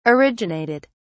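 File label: "originated", British English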